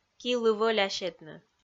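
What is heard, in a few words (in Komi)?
"килы вӧля сетны", Komi, female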